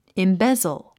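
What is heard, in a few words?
"embezzle", English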